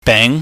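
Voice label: "beng", Cantonese, mid rising tone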